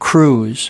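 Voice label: "cruise", US English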